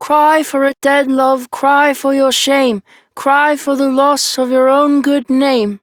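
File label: Child Rhymes Sad Child Male; Duviri; Childrens Rhymes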